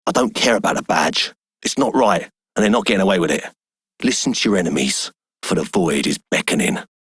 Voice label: Male Generic; Dialog; Warframe; Solaris Venus